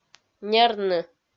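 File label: "нерны", Komi, female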